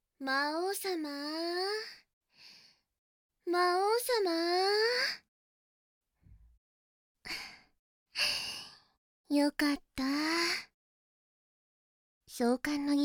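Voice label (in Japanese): オープニング（バイノーラルマイク 効果音なし）, ASMR; 新任魔王様のお世話をするスライムメイド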